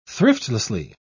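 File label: "thriftlessly", British English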